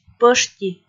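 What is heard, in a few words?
"пӧшти", Komi, female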